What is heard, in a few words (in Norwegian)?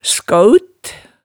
"skaaut", hemsedalsmaalet